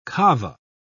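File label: "kava", British English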